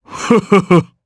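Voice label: Vox Happy, Japanese; Bernheim; kingsraid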